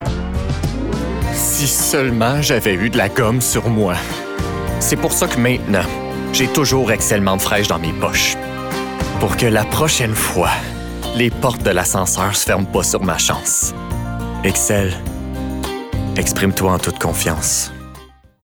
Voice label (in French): fr qc naturel corpo amical avec sourire comedie; excel; febrile conversation quotidienne